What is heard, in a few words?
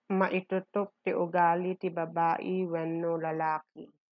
Ilocano